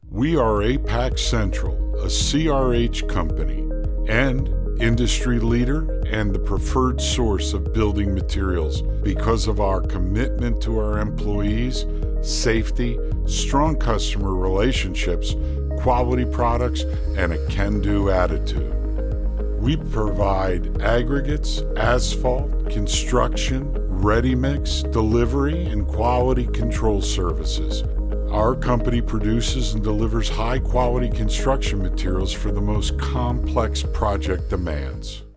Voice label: Corporate Ad

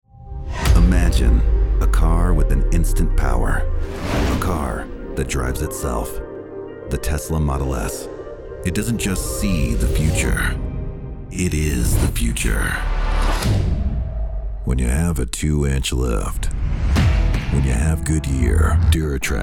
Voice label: Auto Demo Radio

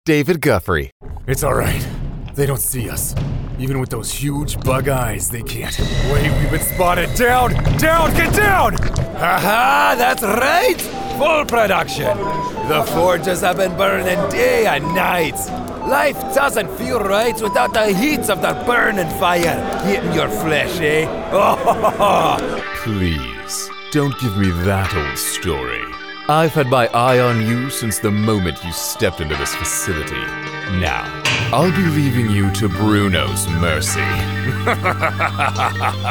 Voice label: Character

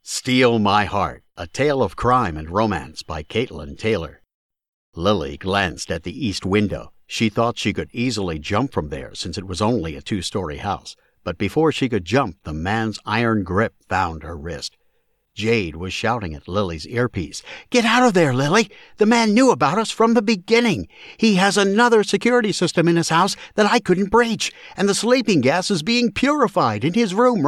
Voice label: audiobook

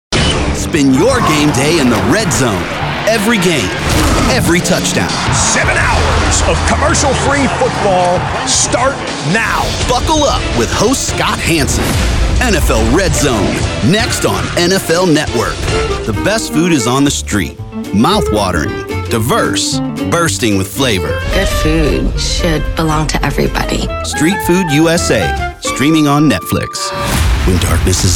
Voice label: Promos

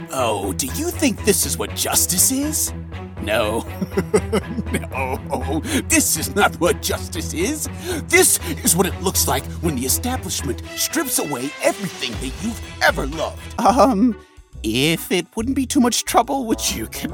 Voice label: Character

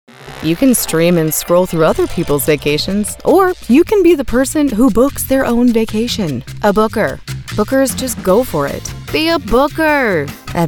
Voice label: VO track

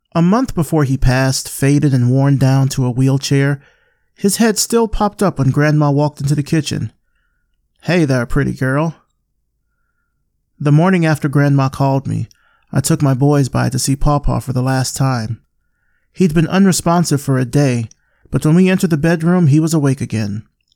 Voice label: audiobook; a quiet life

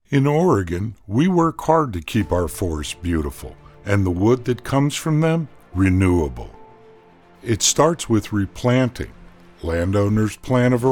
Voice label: Instructional

